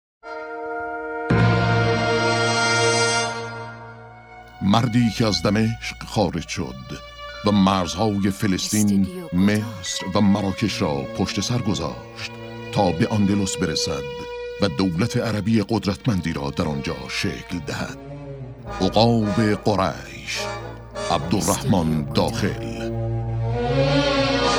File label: commercial